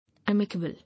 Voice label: "Amicable", English

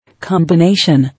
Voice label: "Combination", English

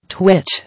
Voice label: "Twitch", English

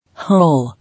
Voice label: "Hole", English

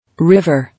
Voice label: "River", English

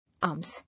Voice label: "Alms", English

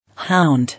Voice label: "Hound", English

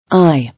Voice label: "Eye", English

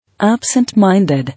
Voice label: "Absent-minded", English

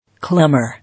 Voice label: "Clamour", English

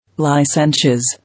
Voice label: "Licentious", English

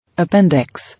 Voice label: "Appendix pl. dixes; dices", English

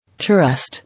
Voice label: "Tourist", English

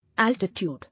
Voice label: "Altitude", English

